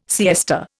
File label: "siesta", Indian